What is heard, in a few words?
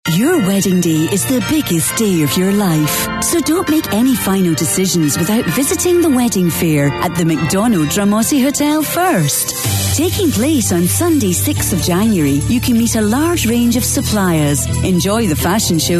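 English Scottish, female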